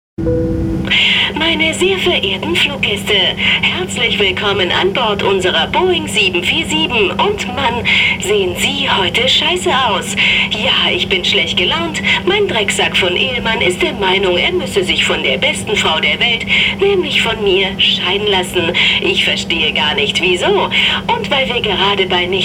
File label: Stewardess